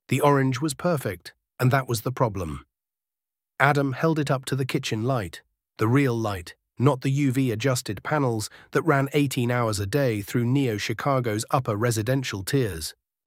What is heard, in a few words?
narrator sample; audiobook-studio